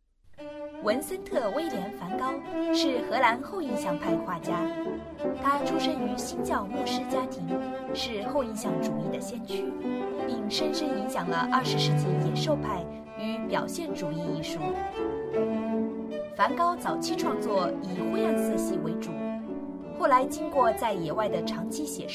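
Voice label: narration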